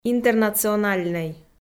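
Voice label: "интернациональнӧй", Komi, female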